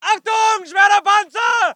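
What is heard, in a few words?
voice german infantry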